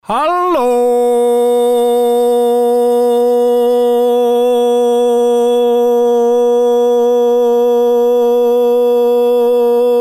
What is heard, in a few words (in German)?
hallooooooooo